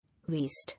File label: "Waste", English